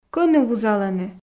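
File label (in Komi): кӧні вузалӧны, Komi, female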